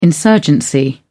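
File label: "insurgency", British English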